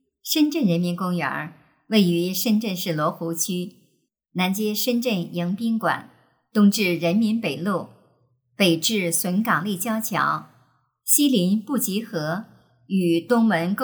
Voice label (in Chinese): 短视频宣传片配音